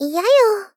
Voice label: Gentle Dislike "Iya yo"; Rei Yumesaki

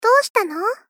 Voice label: Gentle Call "Do shita no"

